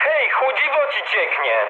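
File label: damaged water, mood med, Polish speech; War Thunder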